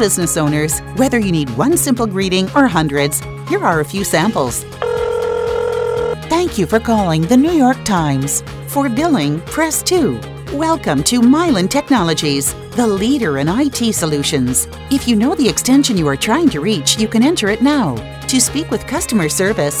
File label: Voicemail Greetings, English